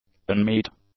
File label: "unmeet", English; adjectives